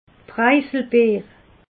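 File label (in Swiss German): "preiselbeer", Alsatian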